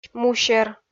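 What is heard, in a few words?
"мусер", Komi, female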